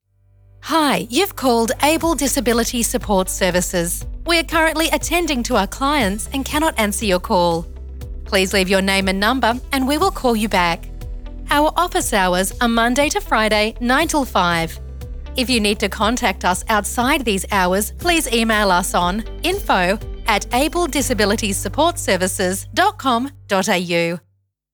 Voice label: Phone Disability Services Voicemail Greeting; Able Disability Services

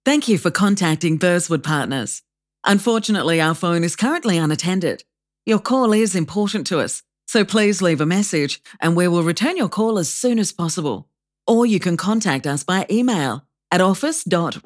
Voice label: Phone Voicemail Greeting; Burswood Partners

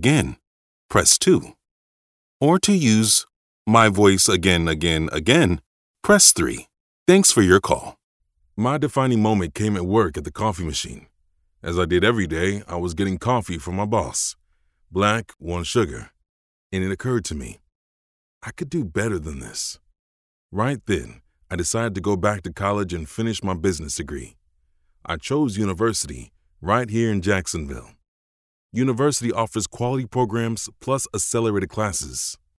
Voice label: US phone

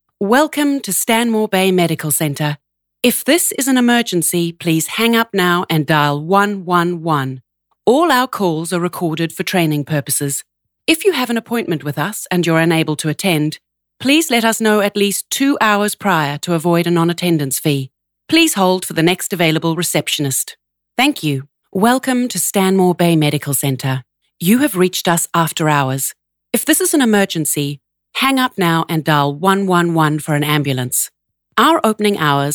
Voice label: NZ PHONE

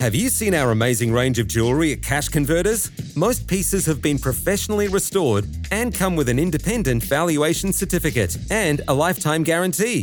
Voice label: Phone On Hold Message; Cash Converters

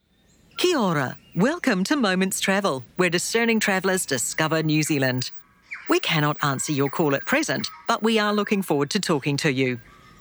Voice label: Phone VO Business Voicemail; Moments Travel

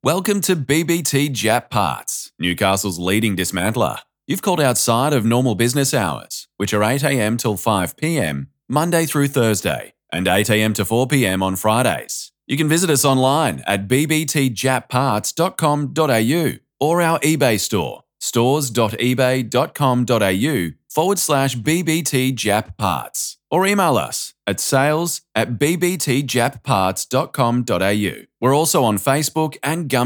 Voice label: Phone Voicemail Greeting; BBT Japparts

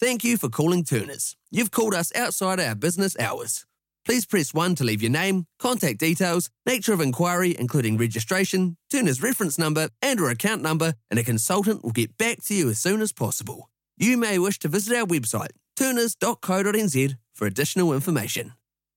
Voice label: Voicemail Greeting

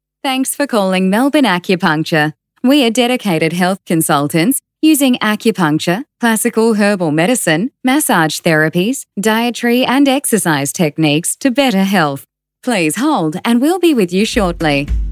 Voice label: NZ PHONE

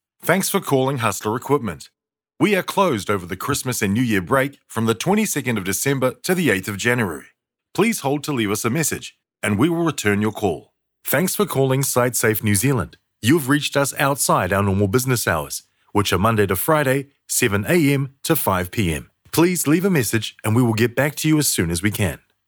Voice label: NZ Male Voice Phone

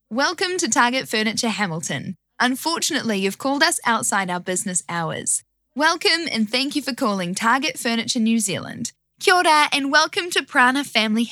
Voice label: NZ Female Voice Phone

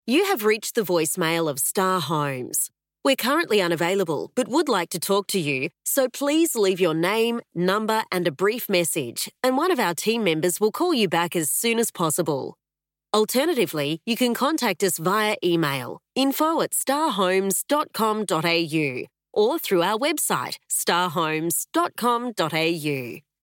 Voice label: Voicemail Greeting

